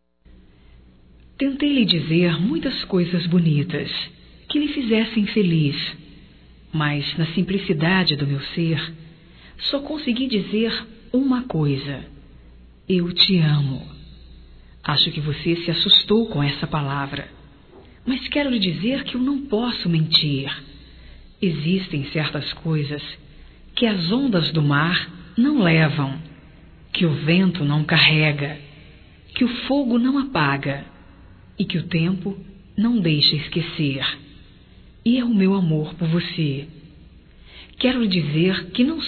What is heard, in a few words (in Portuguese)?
ROMANTICA GERAL, Românticas fem